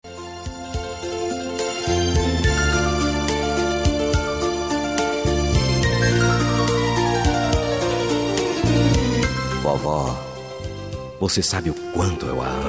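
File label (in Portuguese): dia da avó masculina; dia dos avos para avo voz masculino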